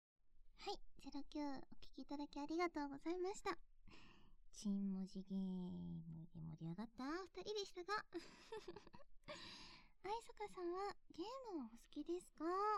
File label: FREE TALK, ASMR